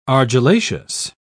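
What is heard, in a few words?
"argillaceous", British English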